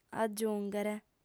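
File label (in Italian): "agiùnghere", lingua sarda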